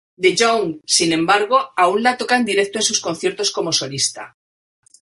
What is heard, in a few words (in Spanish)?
"directo", spaans